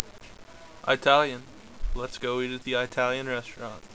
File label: italian; funny